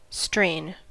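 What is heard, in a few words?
"strain", US English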